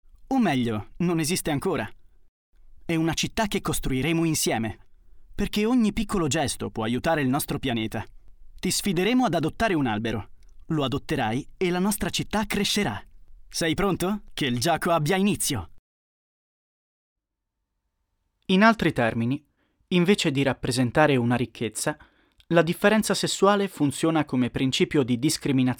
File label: elearning